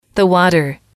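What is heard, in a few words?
"the water", English, female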